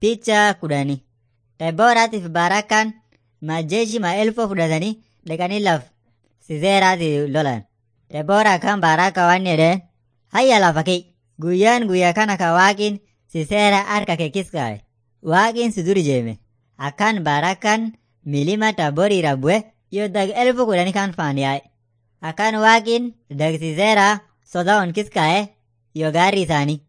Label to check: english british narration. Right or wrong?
Wrong